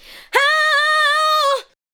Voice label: ADLIBS 90; Ueberschall; Jam Box